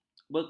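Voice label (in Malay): "bekerlapan", Malay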